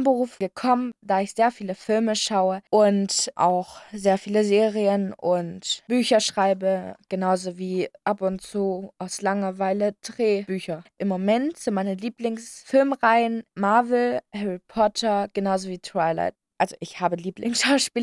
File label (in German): Schauspiel